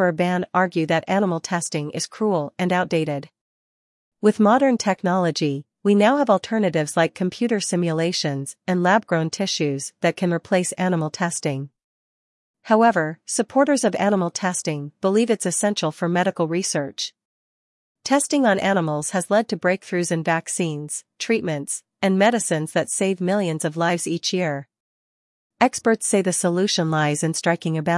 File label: news anchor; celpip practice test; listening practice test